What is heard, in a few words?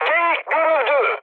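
Turkish enemy detected, mood med, speech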